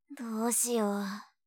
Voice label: "どうしよう", 相づち・反応系【ネガティブ】; ASMR

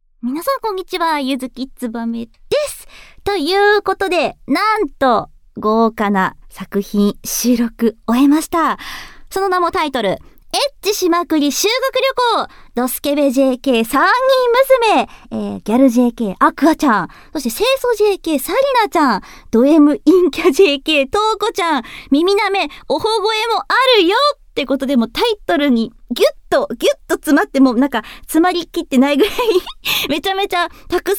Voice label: フリートーク

